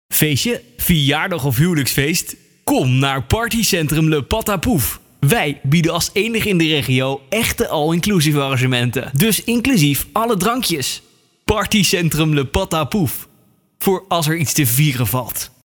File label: commercial; le patapouf